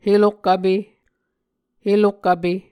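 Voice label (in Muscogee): "helokvpe", Muscogee word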